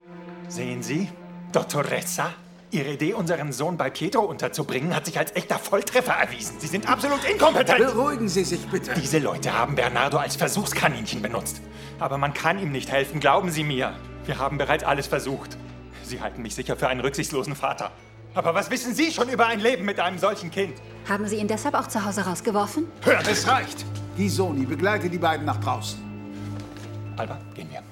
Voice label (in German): Synchro; Sprecher; Don Matteo